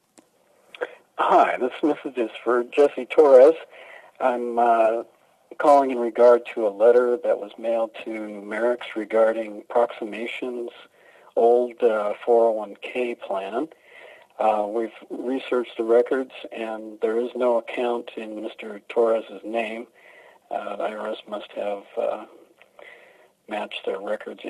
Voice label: voicemail